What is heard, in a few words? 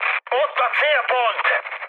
near waypoint, mood high, speech hun; War Thunder